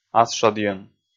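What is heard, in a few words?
"асшодйӧн", Komi, male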